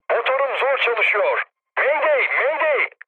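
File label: Turkish damaged engine, mood med, speech; War Thunder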